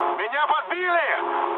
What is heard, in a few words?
aircraft damaged, mood med, speech ru; War Thunder